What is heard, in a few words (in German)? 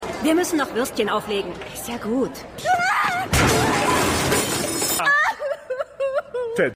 Cafeteria Frau; Brenda